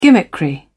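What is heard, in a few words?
"gimmickry", British English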